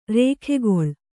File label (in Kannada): "ರೇಖೆಗೊಳ್", Kannada